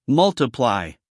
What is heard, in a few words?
"multiply", US male; 1000 hours